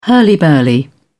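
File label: "hurly burly", English, British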